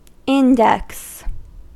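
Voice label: "index", American English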